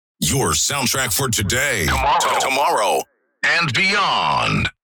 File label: Vocals radio voice sweeper your soundtrack for today